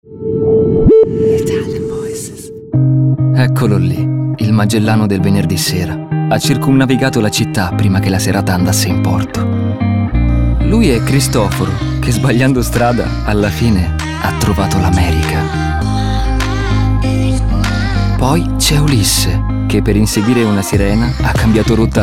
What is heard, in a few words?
ITALIAN VOICES Natural Convincing, male voices